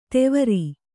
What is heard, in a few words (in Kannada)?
"ತೆವರಿ", kannada